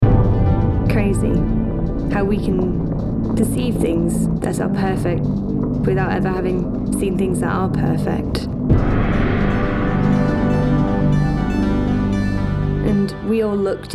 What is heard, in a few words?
female english tv comercial; ideasonora